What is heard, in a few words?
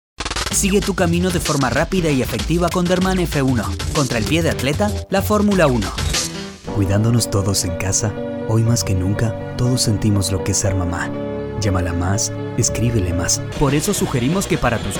Spanish